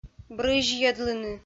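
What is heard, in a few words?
"брызьйӧдлыны", Komi, female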